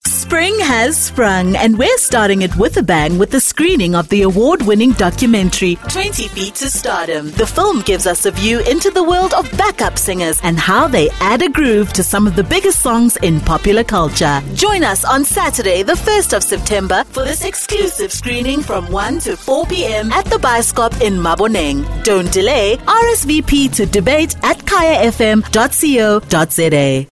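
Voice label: South African English Commercial; GoLocalise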